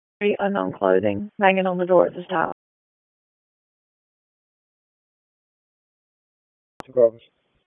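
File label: Police Southeast District Dispatch; Raleigh; p25; wake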